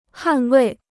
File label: "捍卫", Chinese